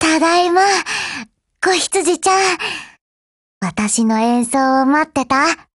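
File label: OPERATION OVER Japanese, Voice; GF Alarm; Falcon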